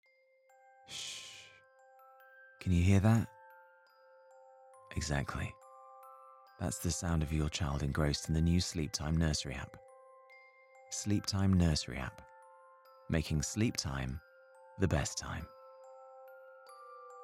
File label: Commercial Baby; voice-over